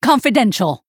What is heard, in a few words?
Female Human Attack